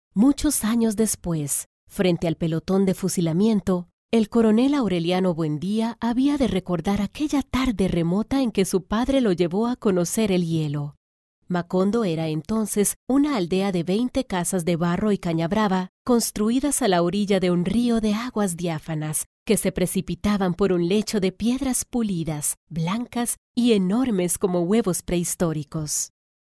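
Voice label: Spanish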